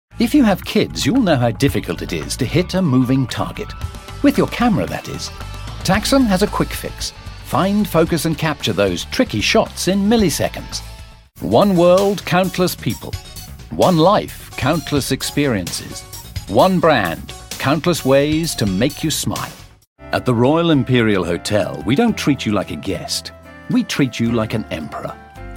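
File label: British English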